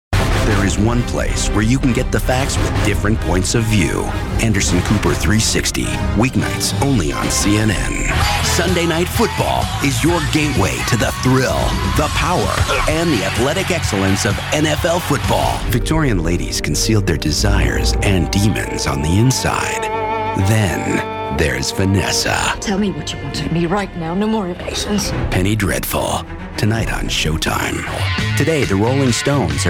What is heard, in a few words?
Promo Male